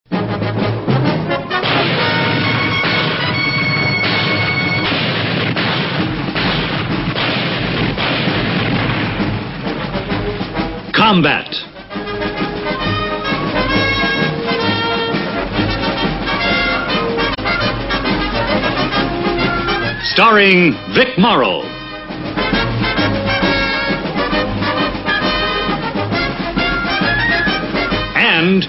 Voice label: TV Theme narration; Combat!